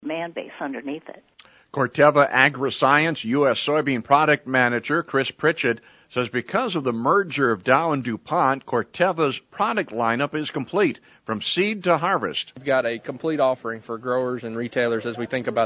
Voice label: air check; Corteva Agriscience